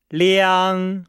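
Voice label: "liang", Chinese; Nihao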